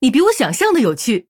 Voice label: Female Human Well Played, zh

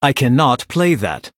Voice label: Male Blood Elf; HERO; ERROR PLAY

